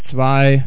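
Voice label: "zwei", German; falcon; male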